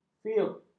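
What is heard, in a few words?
"fiug", Malay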